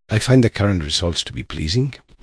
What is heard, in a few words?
happy, speech; fertig classic games; balam